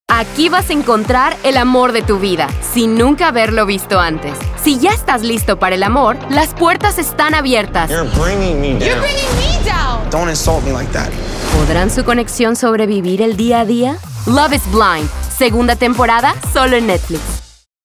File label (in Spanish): Spanish Latin American promos